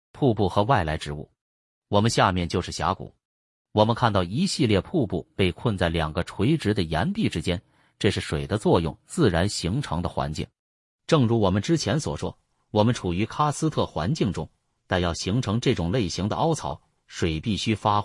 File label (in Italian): Audioguida CHI Cascate